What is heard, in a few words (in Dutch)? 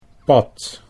"pad", everyday words